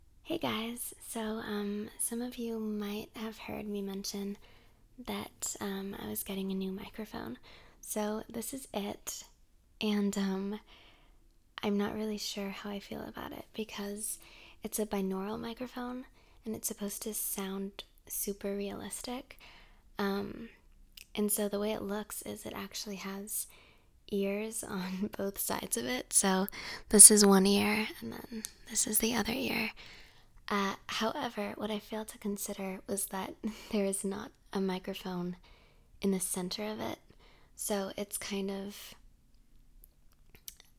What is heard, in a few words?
mic test